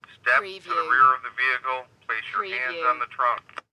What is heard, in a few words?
Police Bull Horn Voice